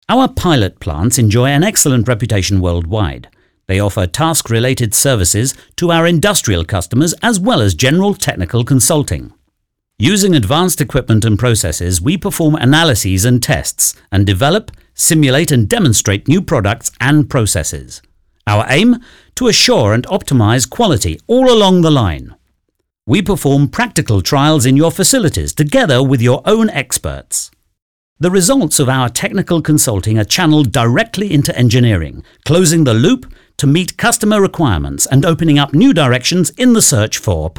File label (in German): Industriefilm; Henkel